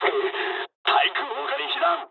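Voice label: damaged aa mood med, speech japanese; War Thunder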